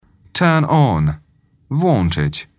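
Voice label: "turn on", English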